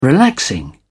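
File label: "relaxing", British English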